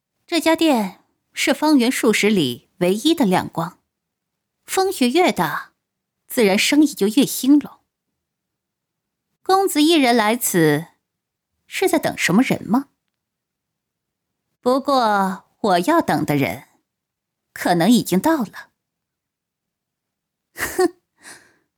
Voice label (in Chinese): 少御